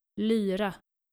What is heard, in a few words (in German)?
"lira", skandinavische maedchennamen